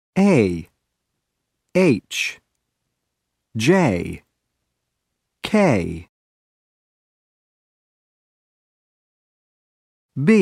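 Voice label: alphabet sounds, English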